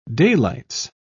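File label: "daylights", English, British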